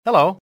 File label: "hello", english male; XBOX; civ